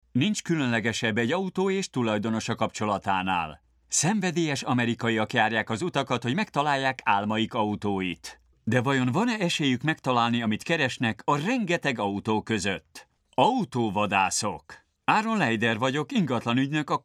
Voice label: hungarian m narration; voice demos